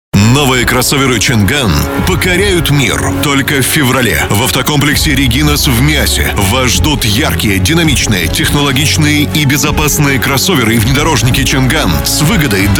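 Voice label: Russian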